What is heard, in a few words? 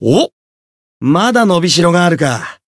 growth jp